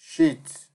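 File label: "shit", Nigerian Pidgin, male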